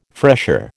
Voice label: "fresher", American